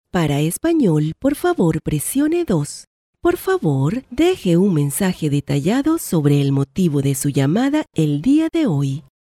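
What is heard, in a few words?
Latin American Spanish female IVR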